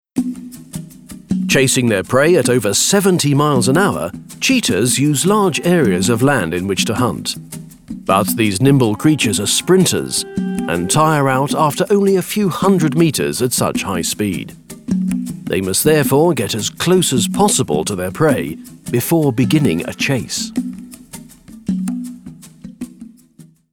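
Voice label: British documentary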